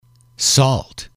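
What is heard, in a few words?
"salt", English, male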